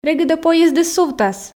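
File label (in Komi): регыд-ӧ поездыс сувтас, Komi, female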